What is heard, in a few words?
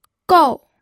"gou4", pinyin audio